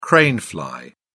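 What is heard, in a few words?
"crane fly", British English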